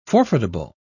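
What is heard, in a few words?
"forfeitable", British English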